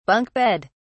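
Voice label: "bunk bed", British English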